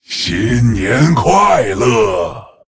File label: Male Lich HAPPY NEW YEAR; zh